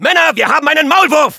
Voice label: Soldier cloaked spy, de

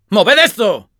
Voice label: move up, es; "¡Moved esto!"; Spy

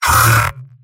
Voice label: medic pain sharp

